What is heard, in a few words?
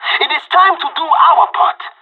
radio lock n load; Counter Strike Model Pack; CS:GO